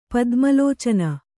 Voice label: "ಪದ್ಮಲೋಚನ", Kannada